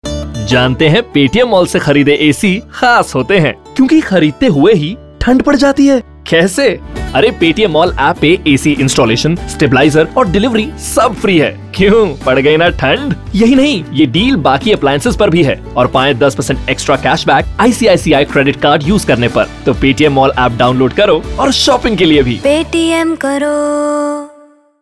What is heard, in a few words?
Hindi, male voice; Paytm Mall